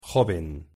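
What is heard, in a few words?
pronunciation "joven", Spanish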